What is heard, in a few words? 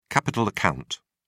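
"capital account", British English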